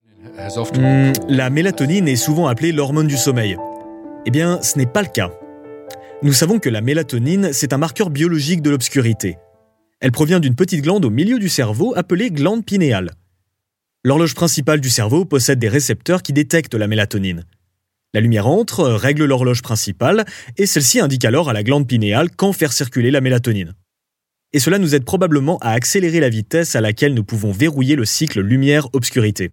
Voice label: french male natural